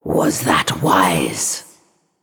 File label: Female Naga Oops